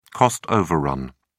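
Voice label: "cost overrun", British English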